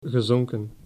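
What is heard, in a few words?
"gezonken", Dutch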